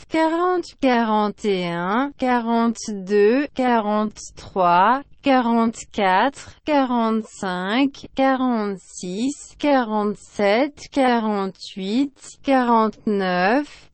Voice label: french